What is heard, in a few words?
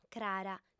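"crara", Sardinian, female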